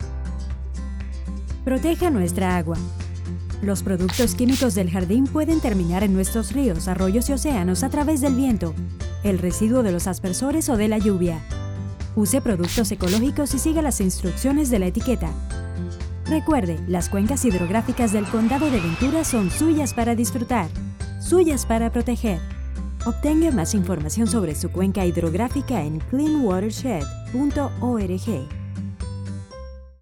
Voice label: Spanish